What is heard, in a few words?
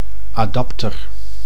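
"adapter", Dutch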